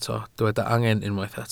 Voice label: "angen", Welsh